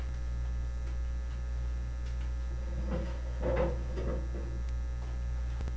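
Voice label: "pick", spoken word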